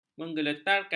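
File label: "menggeletarkan", Malay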